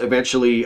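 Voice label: "eventually", US English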